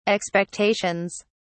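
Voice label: "expectations", British English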